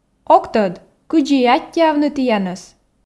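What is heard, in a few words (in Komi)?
ог тӧд кыдзи и аттьӧавны тіянӧс, Komi, female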